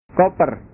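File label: "koper", Indonesian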